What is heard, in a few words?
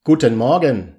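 guten morgen, German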